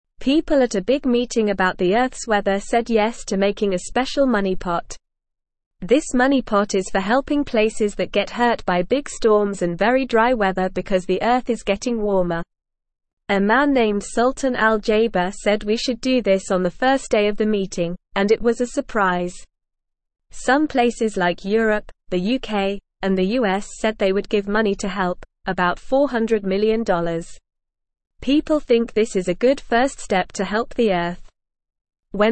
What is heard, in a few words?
English Beginner NORMAL Reading; Big Meeting Agrees to Help Places Hurt by Bad Weather; English Newsroom